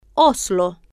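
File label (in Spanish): "oslo", Spanish, female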